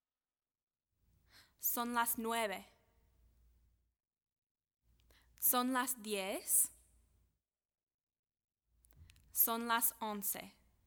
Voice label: Que Hora Pronunciation